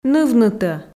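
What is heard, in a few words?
"нывнытӧ", Komi, female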